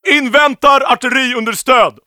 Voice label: neutral